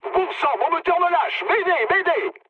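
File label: French damaged engine mood med; War Thunder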